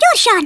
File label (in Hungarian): "hurry", Hungarian; Worms Armageddon